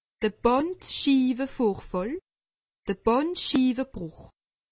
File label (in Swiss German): "bandschiiwevorfall", alsace; medecine